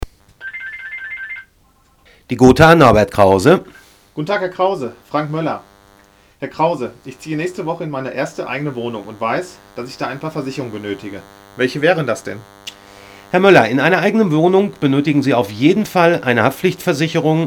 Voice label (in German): deutsch